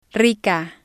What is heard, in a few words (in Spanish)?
"rica", spanish